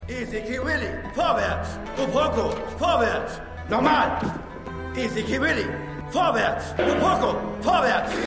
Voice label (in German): Nguni Lehrer; The Good Fight